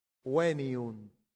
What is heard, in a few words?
"veniunt", latin; santillana